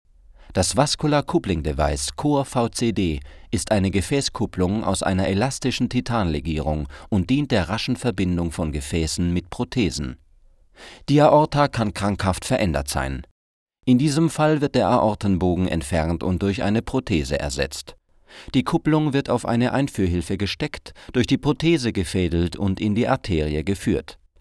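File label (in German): Imagefilm medizinisch; corlife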